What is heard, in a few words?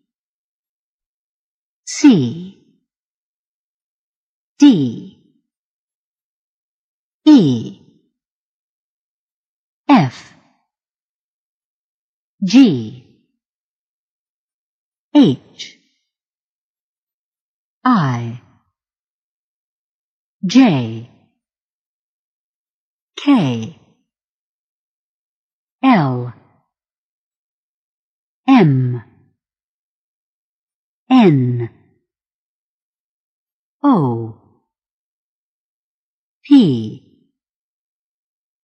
American Alphabet pronounciation